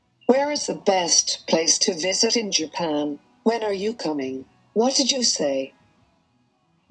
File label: Pronounce questions slow